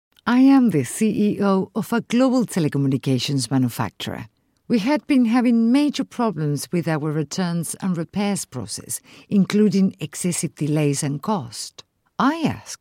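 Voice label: Spanish English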